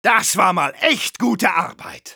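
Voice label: Engineer cheers, German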